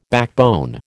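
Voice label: "backbone", American English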